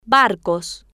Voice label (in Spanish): "bar-cos", Spanish